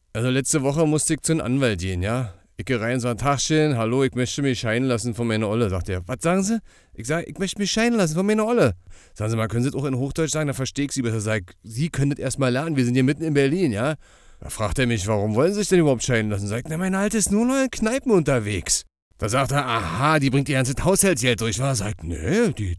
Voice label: Berliner Dialekt; LOFT VOICES

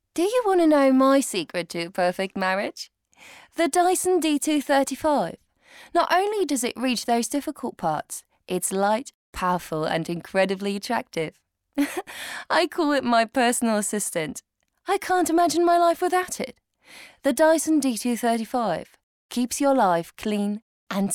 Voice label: englisch werbung; LOFT VOICES

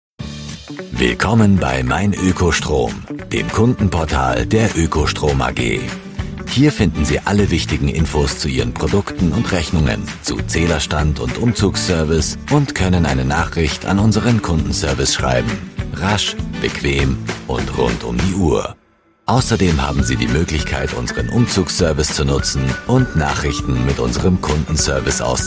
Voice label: Erklaerfilm Oekostrom kompetent

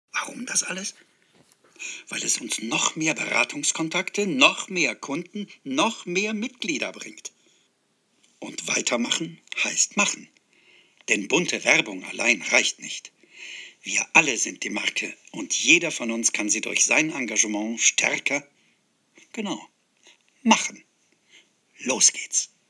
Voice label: imagefilm auszug; volksbanken

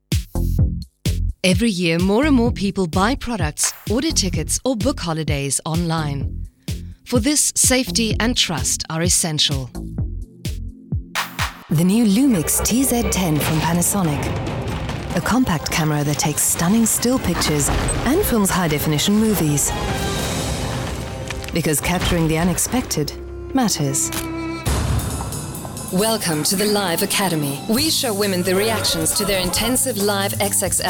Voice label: demo werbung

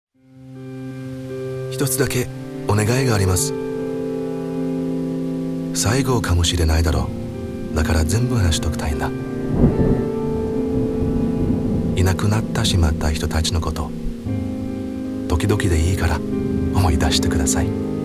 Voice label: japanisch monolog; LOFT VOICES

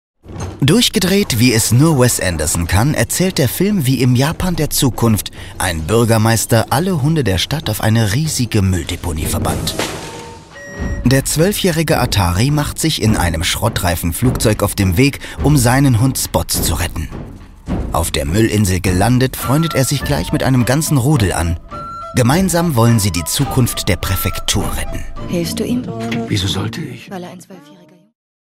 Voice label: Sprachprobe off Kinomagazin; cinegeek

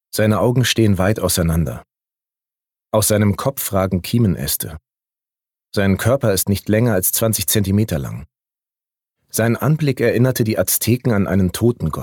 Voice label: Doku Axolotl

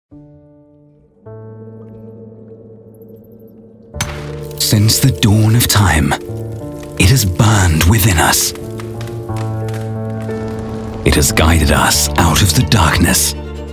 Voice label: Imagefilm; Spartherm

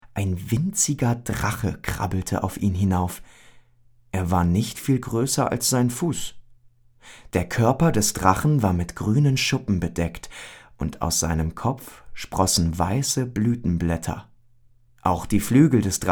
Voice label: Kinderhoerbuch Behutsame Erzaehlung; Drachenmeister; LOFT VOICES

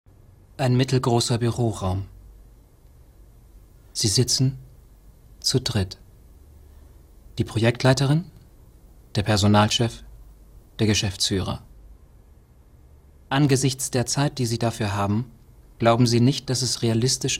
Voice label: voiceover ruhig

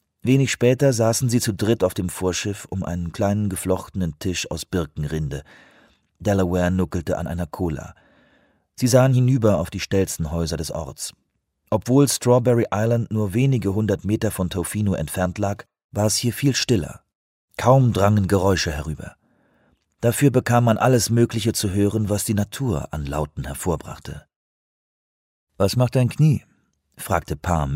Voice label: hoerbuch; schaetzing; schwarm

